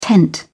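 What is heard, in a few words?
"tent", British English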